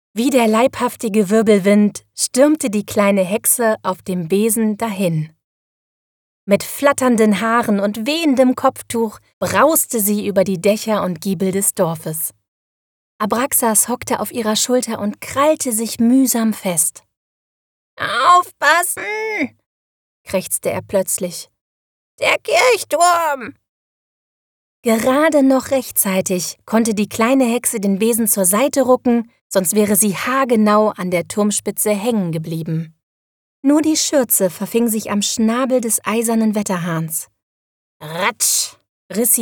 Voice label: Hoerbuch Kinderbuch, hoerbuchsprecherinnen

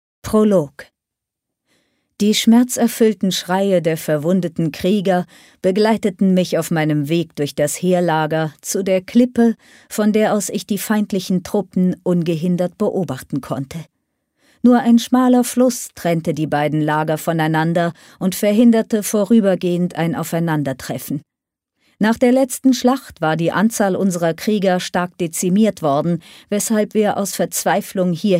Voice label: Hoerprobe, hoerbuchsprecherinnen; Buchfunke

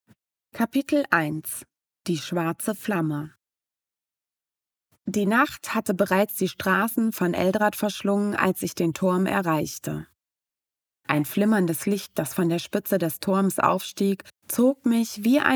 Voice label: Fantasy Hoerbuch; hoerbuchsprecherinnen

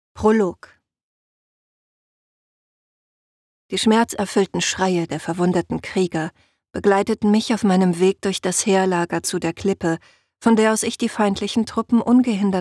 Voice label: Hoerprobe ruhiger; hoerbuchsprecherinnen

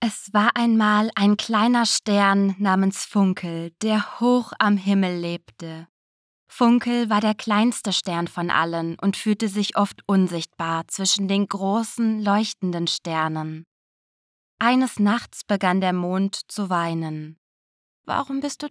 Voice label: Kindergeschichte; hoerbuchsprecherinnen

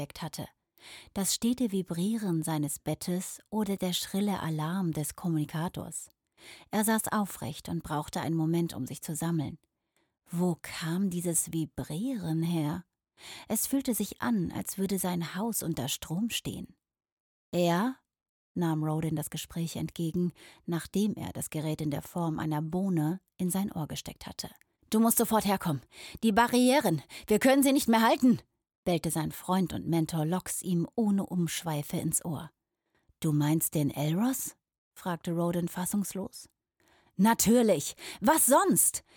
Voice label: Prolog, hoerbuchsprecherinnen; Lyrell

